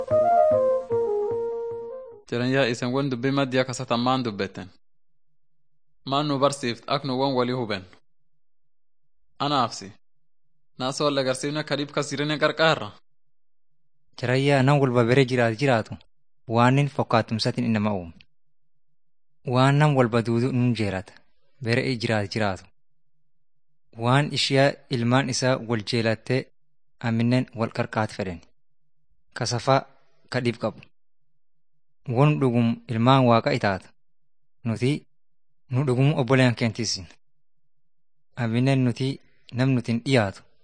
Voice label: teaching tape orma; bible lessons 97 98